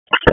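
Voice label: Wake County EMS Dispatch, p25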